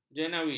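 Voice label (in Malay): "jenawi", Malay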